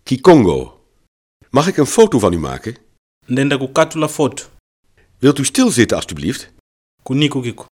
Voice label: kikongo; Mag ik een foto van u maken?